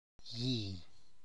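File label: burning coals, Tlacochahuaya Zapotec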